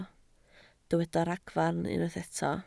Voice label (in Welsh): "ragfarn", Welsh